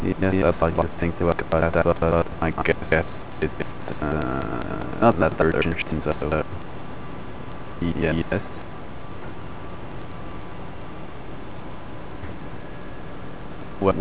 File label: telephone garble